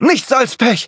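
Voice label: hit, German; cookie run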